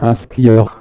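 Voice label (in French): "ski piste", French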